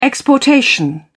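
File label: "exportation", British English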